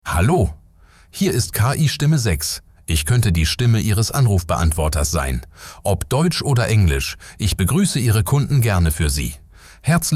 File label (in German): KI-Stimme